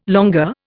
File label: "longueur", Indian